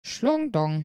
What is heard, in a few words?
voice crack